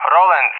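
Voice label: "Roland", English, RUSSIA; Allied Flight; AirDefence; DCS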